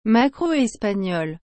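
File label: "maquereau espagnol", French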